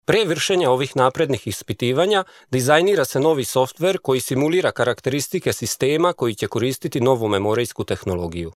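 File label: serbian male voice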